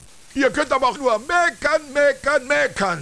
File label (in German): meckern